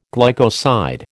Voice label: "glycoside", American